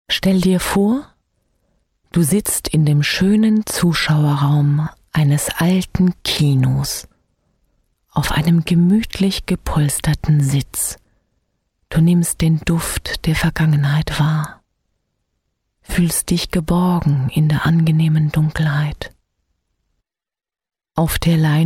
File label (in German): Schauspiel, Neutral